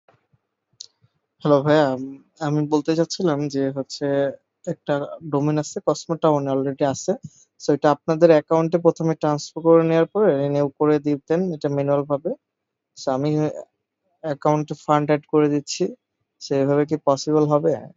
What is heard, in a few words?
voice message